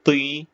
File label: short voyels arabic alphabet